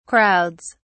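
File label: "crowds", British English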